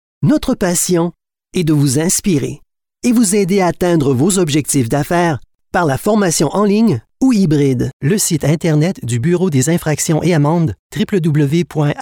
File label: narration Fr